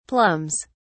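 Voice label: "plums", British English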